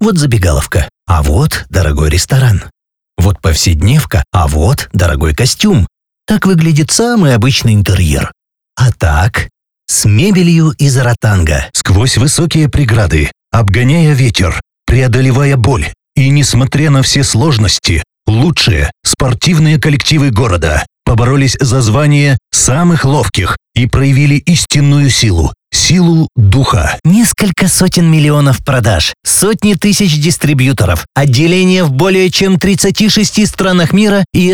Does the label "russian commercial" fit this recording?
Yes